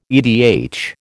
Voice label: "edh", American